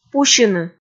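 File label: "пусьыны", Komi, female; kpv audio dict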